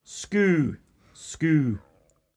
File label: "scoo", Cromarty; Word; Fishing